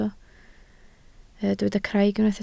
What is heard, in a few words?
"craig", Welsh